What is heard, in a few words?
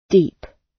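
"deep" British; Flora and fauna, agriculture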